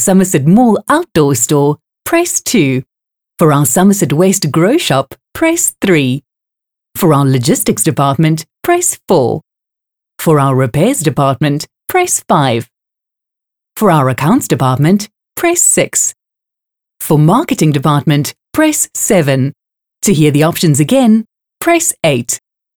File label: English Normal Hours; Futurama